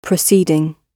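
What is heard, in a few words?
"proceeding", British English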